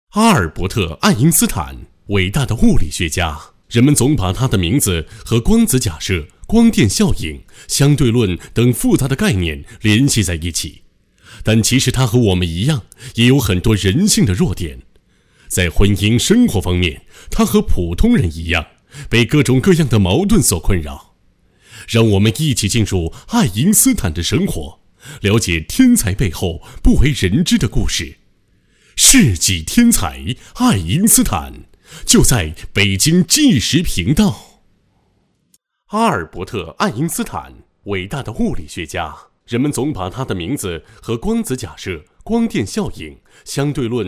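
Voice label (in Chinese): 北京卫视纪实频道配音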